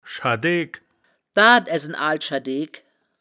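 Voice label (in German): "schadeegk", wittlicher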